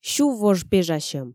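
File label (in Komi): сюввож пежасьӧм, Komi, female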